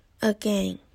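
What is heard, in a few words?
"again", Taiwan, female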